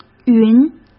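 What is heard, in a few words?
"云", Mandarin Chinese; chinese-flashcards; hsk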